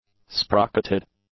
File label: "sprocketed", english; adjectives